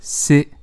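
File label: "C", French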